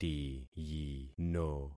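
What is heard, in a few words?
"tiyino", concatenated syllables; Affixing experiment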